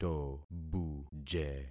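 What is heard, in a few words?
"tobuje", concatenated syllables; Affixing experiment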